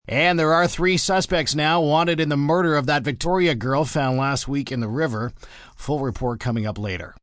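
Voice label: News Murder Male, Broadcast, news, male news item; on Victoria girl murder.; human voice speaking; Voice Box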